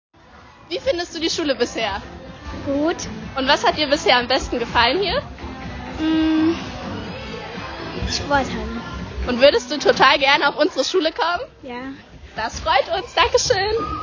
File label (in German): Sprachnachricht